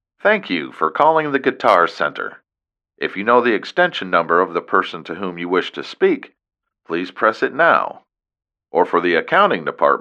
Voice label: Messages on Hold